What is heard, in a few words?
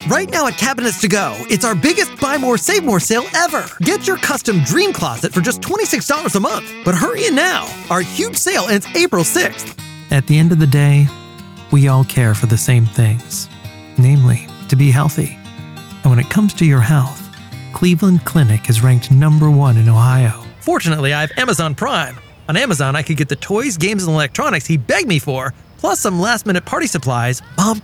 Commercial